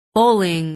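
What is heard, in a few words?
"bowling", English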